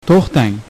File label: stop, uzbek